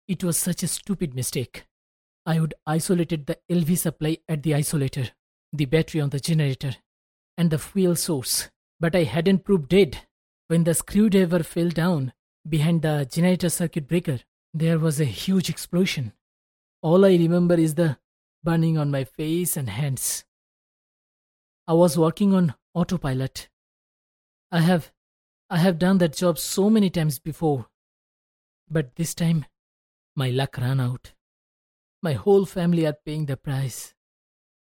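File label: Bengali Accented English Character; GoLocalise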